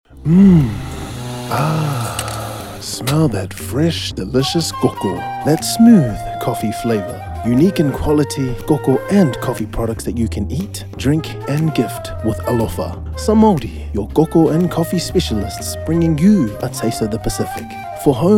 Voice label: advert; SAMAORI MAI